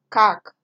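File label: "kak", Nigerian Pidgin, female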